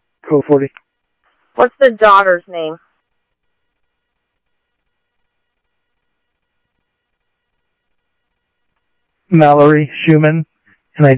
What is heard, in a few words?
Scottsdale Police, scanner audio